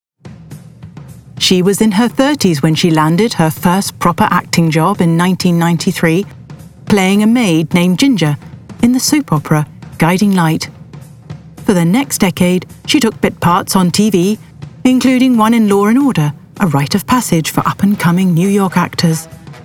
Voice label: Documentary